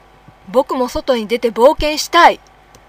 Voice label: boy